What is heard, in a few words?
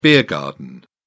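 "beer garden", English, British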